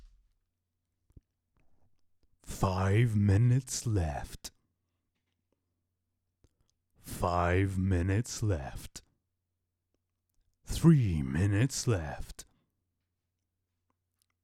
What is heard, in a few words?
Announcer